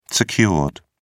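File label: "secured", British English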